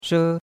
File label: "she1", chinois; Cours de Chinois